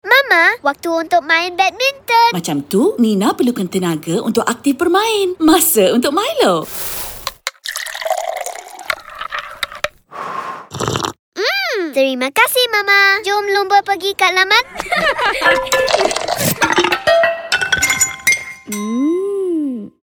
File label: Radio Evening B Malaysia; Time For Milo